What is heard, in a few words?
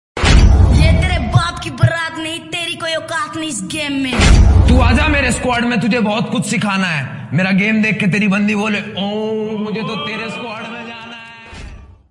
Fight Shayari Ringtone; Pubg